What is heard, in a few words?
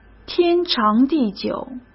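"天长地久", Mandarin Chinese; chinese flashcards; hsk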